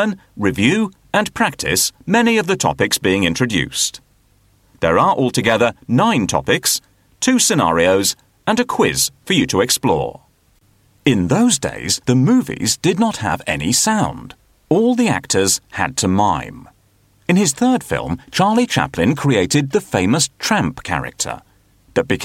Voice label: e-learning and training